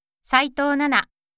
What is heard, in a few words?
free talk